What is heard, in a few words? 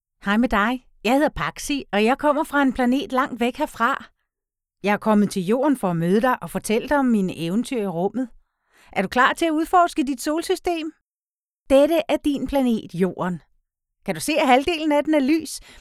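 danish female character, voice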